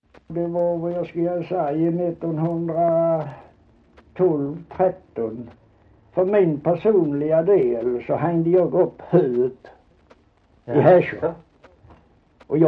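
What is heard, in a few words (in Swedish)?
Holm Halland, dialekter; Folkminnen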